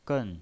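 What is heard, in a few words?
"gen", Mandarin Chinese, fourth tone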